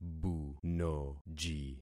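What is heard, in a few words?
"bunoji", concatenated syllables; Affixing experiment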